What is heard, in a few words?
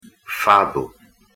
pronunciation pt fado